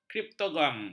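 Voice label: "kriptogam", Malay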